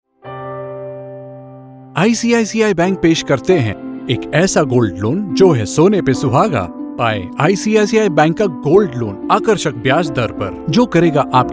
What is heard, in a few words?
Hindi MVO TVC; ICICI Bank